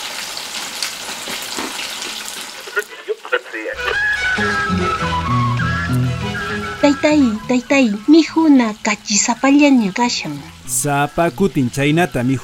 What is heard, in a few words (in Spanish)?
Spot Alimentacion para hacer frente al COVID